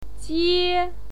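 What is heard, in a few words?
"jie", Mandarin Chinese pinyin, first tone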